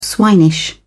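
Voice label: "swinish", British English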